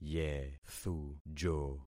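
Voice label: "yethujo", concatenated syllables; Affixing experiment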